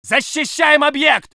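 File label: voice soviet infantry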